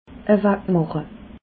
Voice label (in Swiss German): "ewegmache", alsace